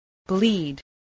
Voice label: "bleed", spelling